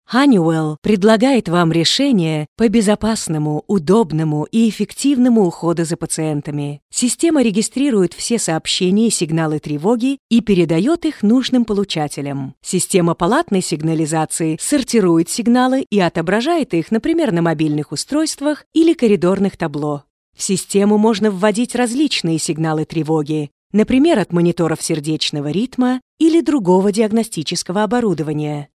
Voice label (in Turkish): Rusca Kadin, rusca seslendirme; kadin sesler